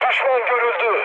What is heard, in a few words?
enemy detected, mood high, speech tur